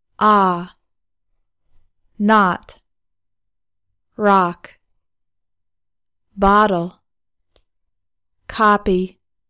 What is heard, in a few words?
english vowels